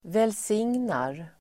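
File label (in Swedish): "välsignar", Swedish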